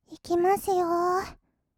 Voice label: いきますよー, kawaii voice; ASMR; 色々なワンショット

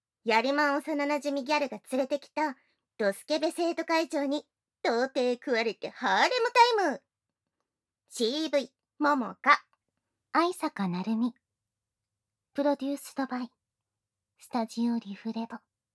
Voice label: タイトルコール, ASMR

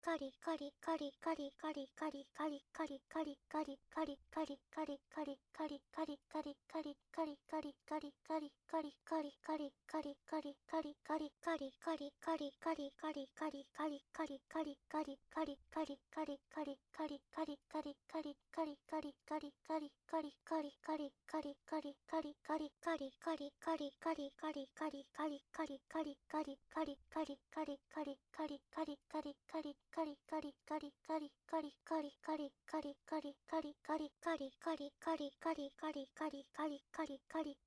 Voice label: かりかりボイス 鬼畜; 汎用エロボイス; おまけ音声（汎用指示ボイス・汎用エロボイス）

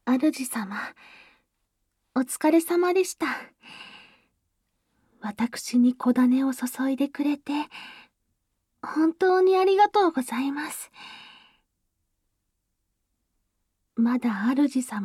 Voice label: 甘々和服メイドさん ボイスのみ, ASMR

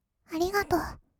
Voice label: ありがとう, kawaii voice; ASMR; 色々なワンショット

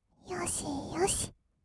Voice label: "よしよし", kawaii voice; ASMR; 色々なワンショット

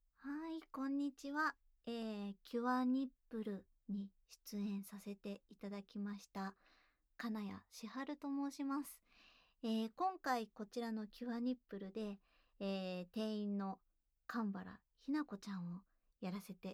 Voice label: PRボイス

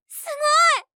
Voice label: "すごい！", 相づち・反応系【ポジティブ】

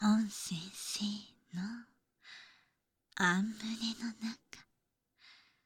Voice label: 添い寝トランス; Garden; Job05 Teacher; 先生と添い寝

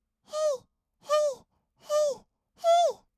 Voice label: へいへい, kawaii voice; ASMR; 合いの手; 1200 kawaii voice!!

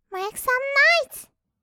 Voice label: "メイクサムノイズ", kawaii voice; ASMR; 1200 kawaii voice!!; 音ゲー

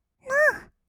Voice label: "ノー", kawaii voice; ASMR; 色々なワンショット

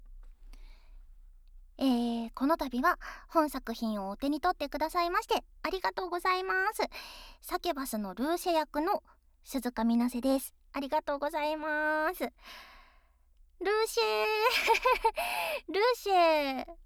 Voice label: フリートーク

